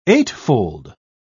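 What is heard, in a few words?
"eightfold", British English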